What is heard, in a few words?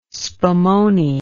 "spumone", english